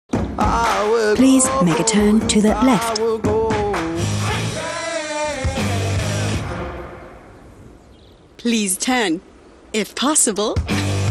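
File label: British English, W Fremdtake, Dynamisch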